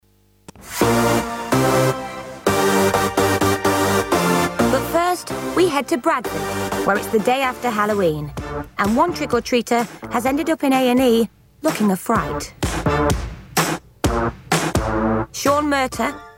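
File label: narration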